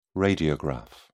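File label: "radiograph", British English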